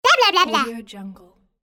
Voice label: cartoon girl blah blah blah blah